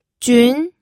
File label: "jun2", pinyin